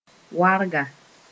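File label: "warga", Mooré; first hoeing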